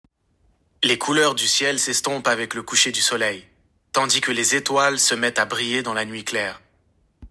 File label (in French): Siri Homme